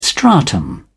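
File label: "stratum", English, British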